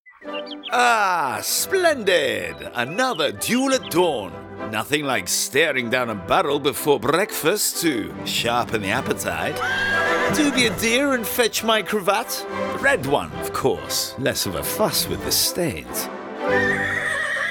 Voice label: animation, game dubbing male voices british accent